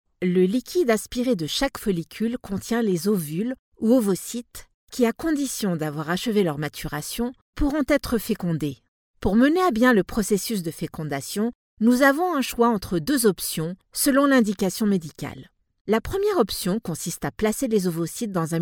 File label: locutora francesa french voiceover